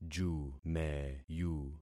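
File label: "jumeyu", concatenated syllables; Affixing experiment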